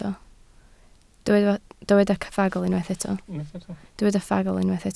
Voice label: "ffagl", Welsh

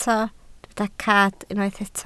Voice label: "cad", Welsh

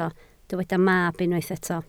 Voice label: "mab", Welsh

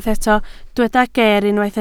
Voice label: "geer" Welsh

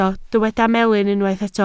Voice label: "melyn", Welsh

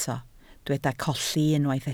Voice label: "colli", Welsh

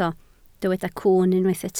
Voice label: "cwwn", Welsh

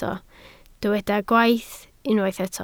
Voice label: "gwaith", Welsh

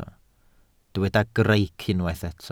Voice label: "greic", Welsh

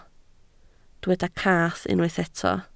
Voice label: "cath", Welsh

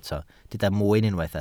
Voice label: "mwyn", Welsh

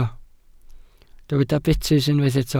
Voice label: "betys", Welsh

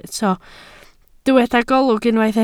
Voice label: "golwg", Welsh

